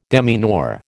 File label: "demeanour", American